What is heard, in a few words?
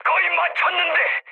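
Korean bomb failure mood high, speech; War Thunder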